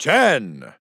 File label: Announcer Count 10; Bruce